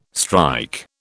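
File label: "strike", British English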